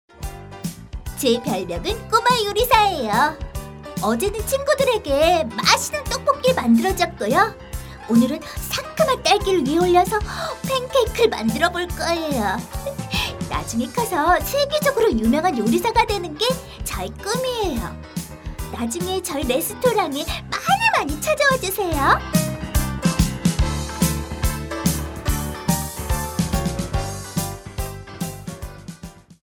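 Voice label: Korean, female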